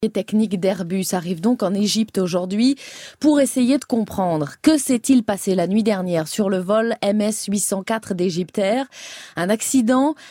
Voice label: French